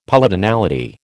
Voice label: "Polytonality", American

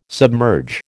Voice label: "submerge", American

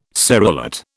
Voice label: "serrulate", British

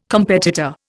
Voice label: "competitor", Indian

